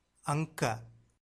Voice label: "anka", Tamil